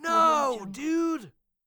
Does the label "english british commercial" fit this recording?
No